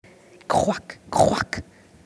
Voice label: Grenouille - Espagnol

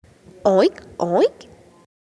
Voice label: Cochon - Espagnol

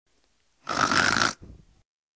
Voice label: Cochon - Arabe

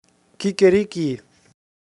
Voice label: Coq - Allemand

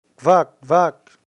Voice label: Grenouille - Allemand

